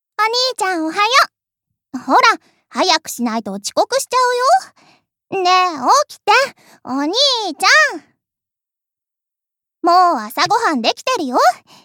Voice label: 長女 おはようボイス

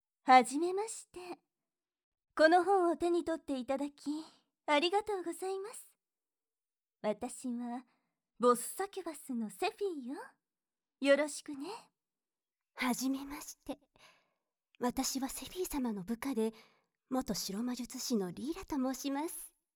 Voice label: 同人誌セリフ読み上げ

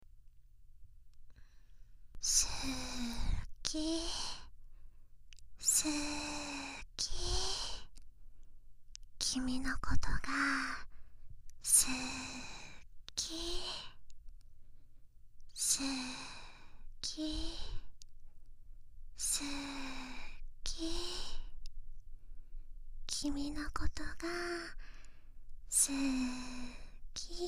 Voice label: 好き好き リピート再生

